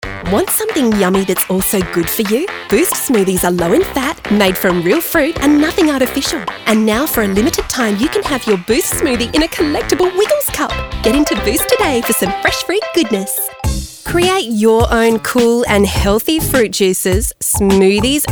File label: Character